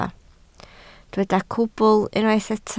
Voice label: "cwbl", Welsh

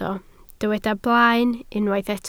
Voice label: "blaen", welsh

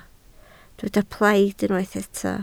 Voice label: "plaid", Welsh

